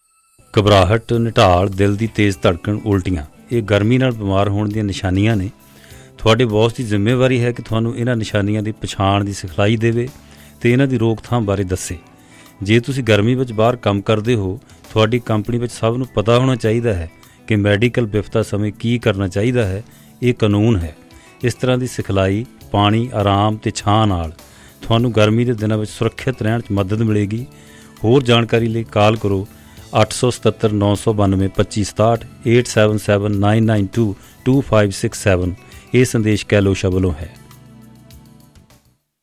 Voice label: Punjabi Radio